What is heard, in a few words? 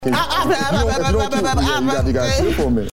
babble